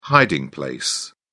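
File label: "hiding place", English, British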